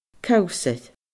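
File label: "cabhsair", Scottish Gaelic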